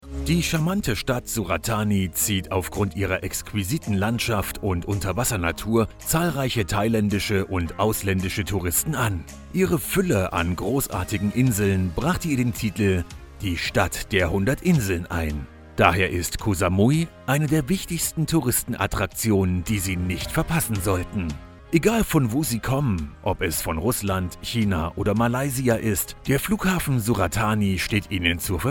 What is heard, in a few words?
Sales Video Thailand Travel